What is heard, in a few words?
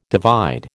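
"divide", American English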